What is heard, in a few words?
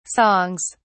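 "songs", British English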